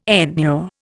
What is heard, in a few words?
"enure", Indian